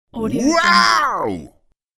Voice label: low male "wow" shout

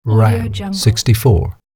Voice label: "round 64", human male voice; دور 64; human sounds

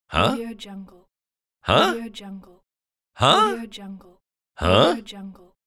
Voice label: male voice "huh"

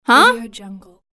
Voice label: female teen voice "huh"; تعجب دختر نوجوان; human sounds

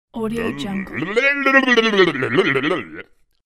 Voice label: caveman protesting anxious; cartoon

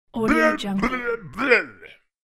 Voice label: caveman furious protesting; cartoon

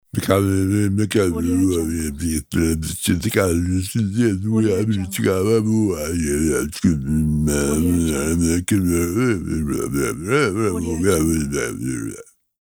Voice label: male mumbling; cartoon

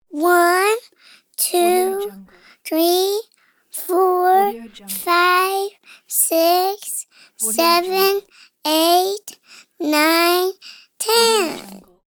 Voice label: toddler boy counting to ten; شمردن اعداد انگلیسی; human sounds

